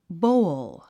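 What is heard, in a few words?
"bowl", English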